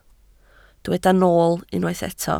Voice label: "nool", Welsh